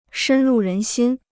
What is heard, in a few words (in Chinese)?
"深入人心", Chinese